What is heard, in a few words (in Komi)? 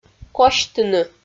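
"косьтыны", Komi-Zyrian, female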